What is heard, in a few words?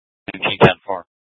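Police Department North Dispatch, scanner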